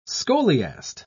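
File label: "scholiast", British English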